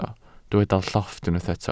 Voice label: "llofft", welsh